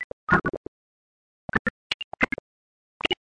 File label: alien discourse; LOOPING AMBIENCE